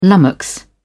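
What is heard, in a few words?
"lummox", British English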